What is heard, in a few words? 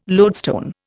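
"loadstone", Indian English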